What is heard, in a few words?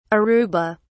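"aruba", British English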